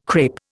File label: "crape", Indian